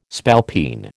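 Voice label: "spalpeen", American English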